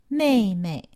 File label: "meimei", Chinese; Survival Chinese